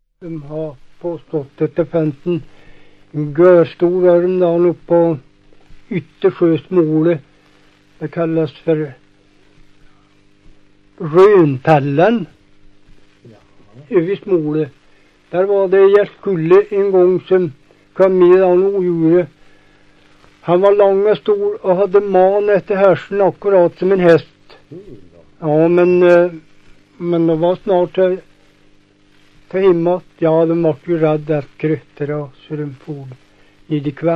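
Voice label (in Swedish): Hede Härjedalen, svenska sagor dialekter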